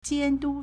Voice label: "jian1 du1", Chinese